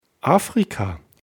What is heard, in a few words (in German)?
"Afrika", d